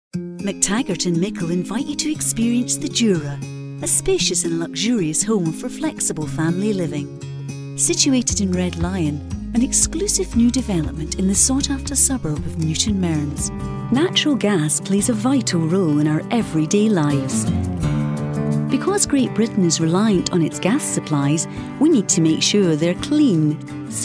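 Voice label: Scottish English, female, corporate; accent of scotland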